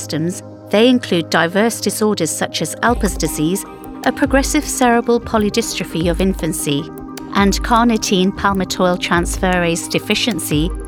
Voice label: Medical eLearning mitochondrial